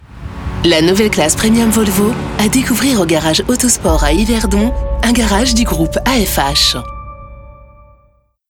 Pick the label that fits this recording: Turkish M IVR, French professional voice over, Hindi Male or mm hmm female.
French professional voice over